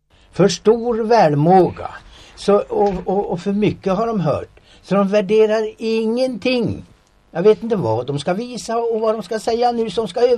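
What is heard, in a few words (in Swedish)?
Balingsta Uppland, dialekter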